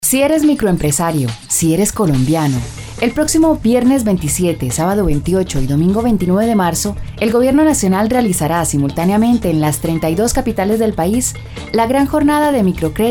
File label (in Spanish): promo banca radional